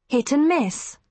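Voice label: "hit and miss", British English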